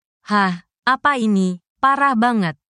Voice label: indonesian phrases